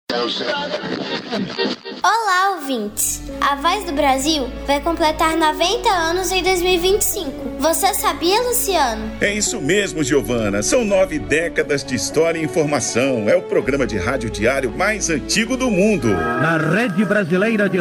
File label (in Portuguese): spot voz